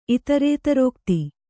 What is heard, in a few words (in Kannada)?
"ಇತರೇತರೋಕ್ತಿ", Kannada